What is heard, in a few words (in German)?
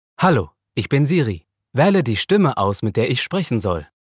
Siri Stimme